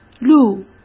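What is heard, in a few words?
"鹿", Mandarin Chinese; hsk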